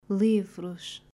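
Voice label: "Livros", Portuguese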